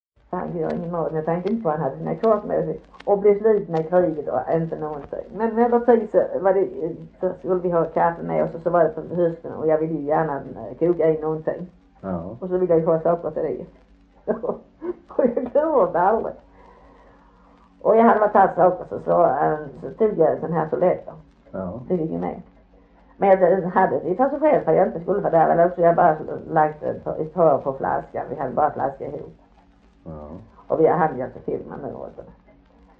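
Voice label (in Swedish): Harplinge Halland, dialekter; Folkminnen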